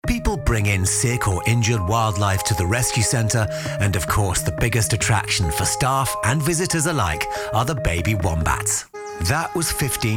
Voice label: Narration

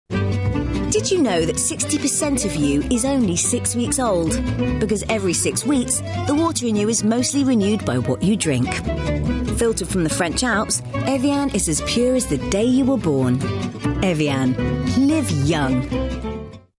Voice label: Advert INFORMATIVE FRIENDLY; EVIAN

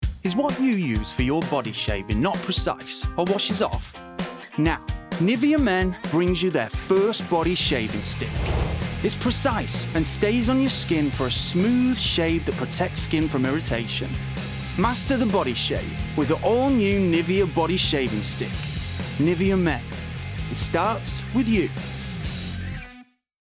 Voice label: Lads dynamic; Nivea Men

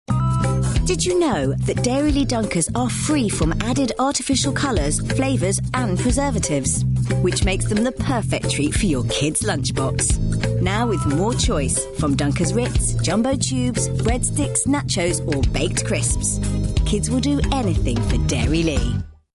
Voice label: Advert SMILEY POSITIVE; DAIRYLEA

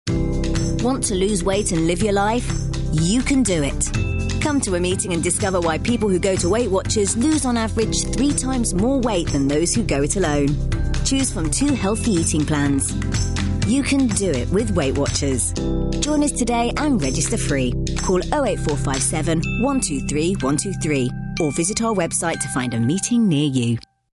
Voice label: Advertising, ENCOURAGING AND ASSURED; WEIGHT WATCHERS

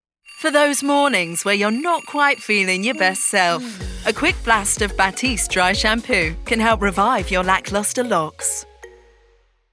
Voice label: Commercial; BATISTE

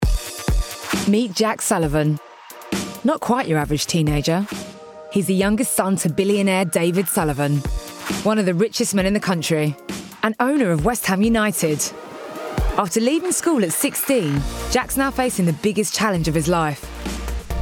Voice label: documentary; Hercules

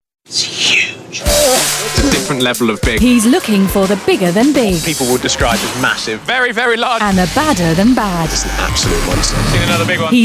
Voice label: Promos and Idents Mix

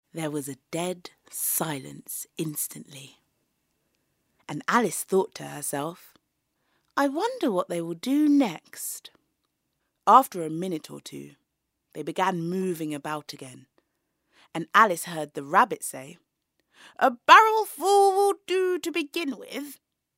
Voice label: Narration; Alice in Wonderland

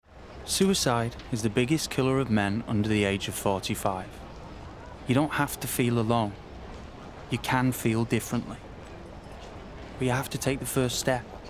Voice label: Reassuring connected warm; Samaritans

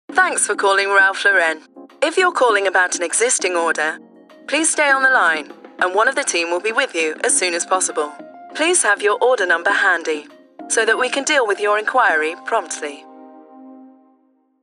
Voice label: Narration ON HOLD

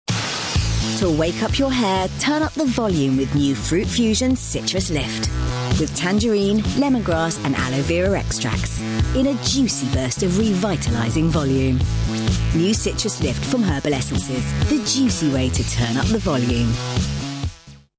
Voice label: Advert COOL AND UPLIFTING; HERBAL ESSENCES

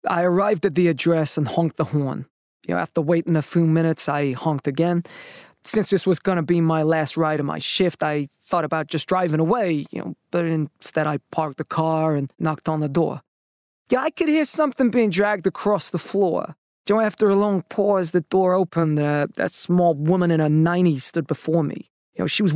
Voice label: NYC Character

